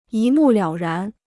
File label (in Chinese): "一目了然", Chinese